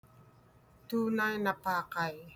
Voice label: Tagalog